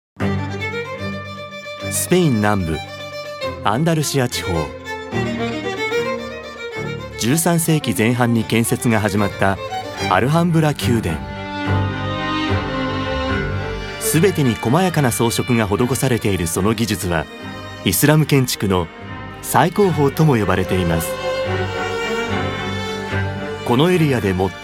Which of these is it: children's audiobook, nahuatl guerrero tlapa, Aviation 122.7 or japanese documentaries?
japanese documentaries